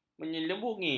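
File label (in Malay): "menyelubungi", Malay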